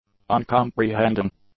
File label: "uncomprehending", English; adjectives